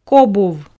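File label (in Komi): "кобув", Komi, female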